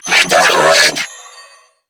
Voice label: Reload Female; New War; Narmer Grineer